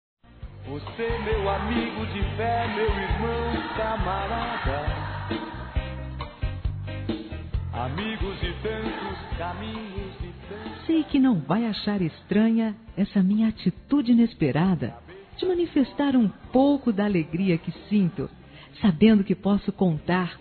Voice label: AMIZADE F, Telemensagens

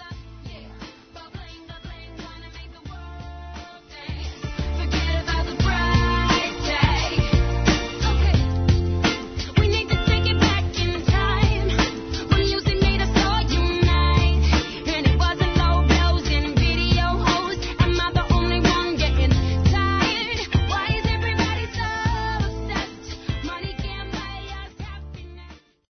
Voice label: Dia Internacional da Mulher Colega Feminino; Telemensagens 2017

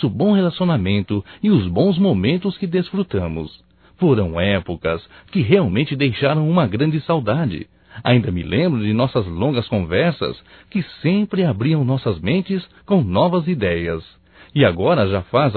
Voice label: SAUDADES AMIGO, Só Voz, masc; Telemensagens; Telemensagens 2017

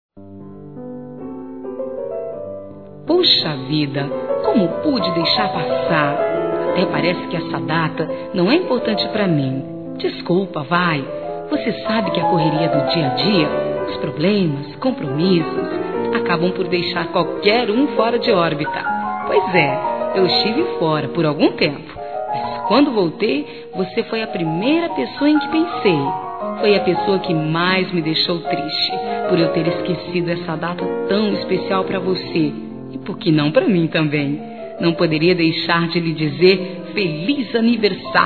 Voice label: Neutro Católico Feminino

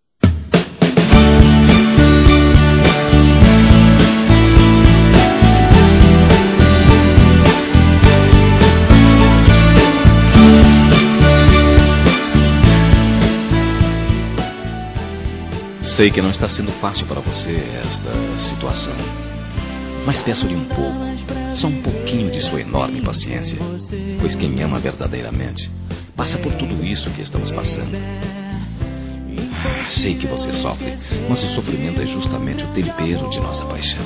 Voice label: Realidade Proibida Masculino, Telemensagens

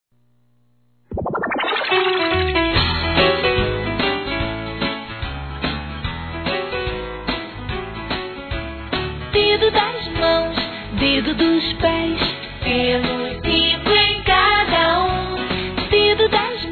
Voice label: Aniversário Afilhado Masculino; Telemensagens 2017; Aniversários; Aniversário Infantil

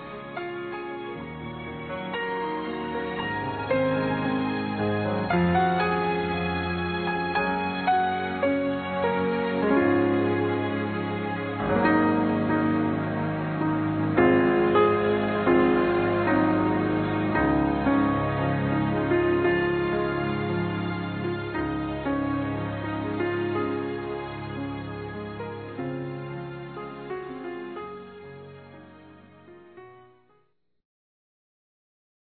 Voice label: Ano Novo Mãe Masculino; Telemensagens; Natal e Ano novo

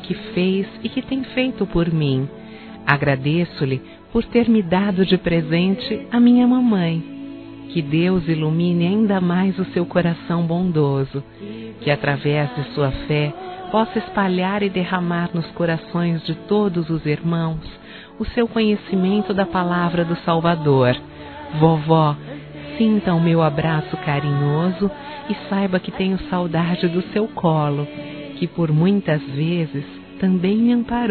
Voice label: Avó Feminino, Telemensagens, Dia das Mães; Telemensagens 2017

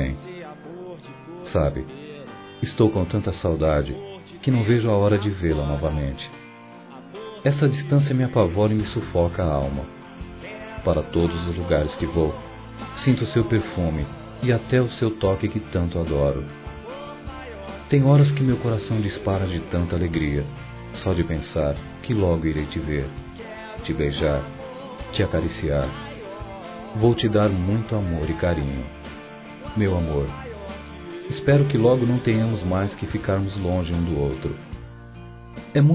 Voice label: Vento Masculino, Telemensagens

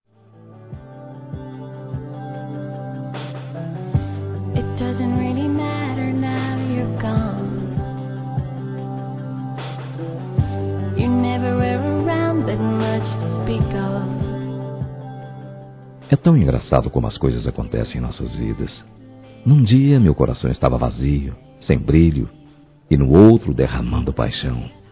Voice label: Aniversário Namoro Masculino

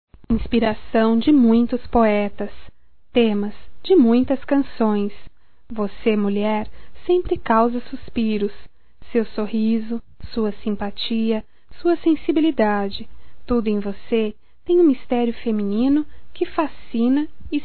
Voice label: Voz, fem; Telemensagens; Só Voz; DIA INTER DA MULHER(AMIGA); Telemensagens 2017

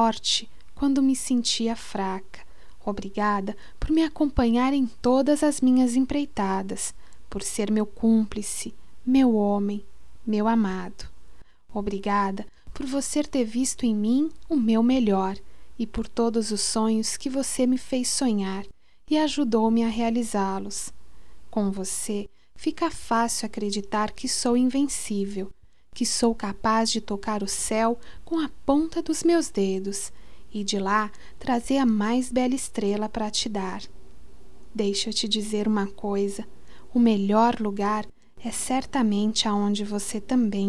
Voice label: Obrigado amor, fem

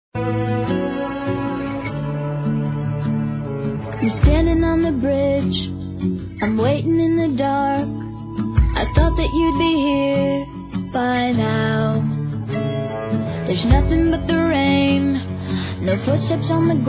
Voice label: Você Deixou Um Vazio Masculino

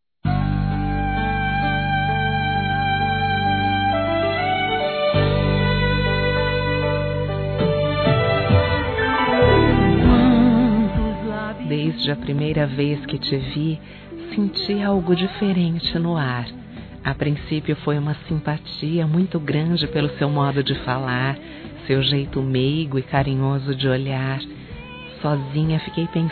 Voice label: ROM QUERO FICAR COM VOCE, Românticas, fem

